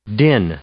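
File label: "din", English; noun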